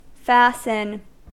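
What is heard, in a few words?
"fasten", US English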